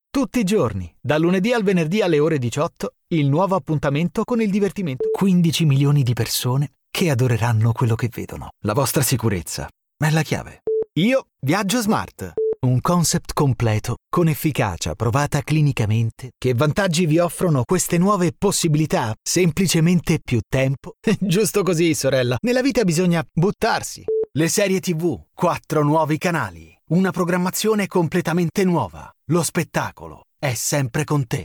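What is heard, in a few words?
Italian, male, commercial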